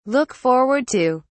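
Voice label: "look forward to", British English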